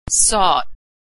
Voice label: verb "sought", pronunciation